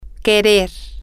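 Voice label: to want, Spanish, female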